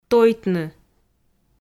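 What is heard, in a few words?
"тойтны", Komi, female; audio dict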